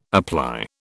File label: "apply", British